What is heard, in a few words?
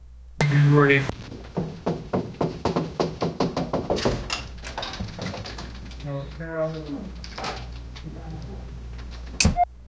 muffled voices arguing